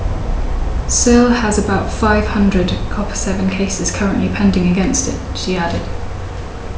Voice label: Reverb near